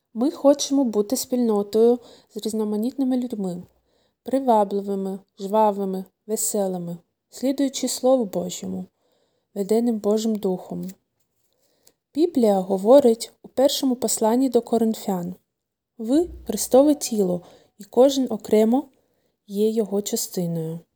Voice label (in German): Ukrainisch; Wir möchten eine Gemeinschaft